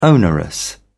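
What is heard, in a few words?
"onerous", British English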